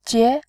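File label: "jie", Mandarin, second tone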